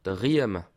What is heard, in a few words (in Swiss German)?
"rieme", alsace